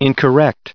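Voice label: "incorrect", US English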